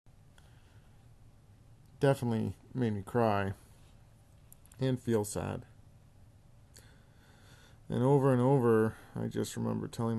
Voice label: testimonial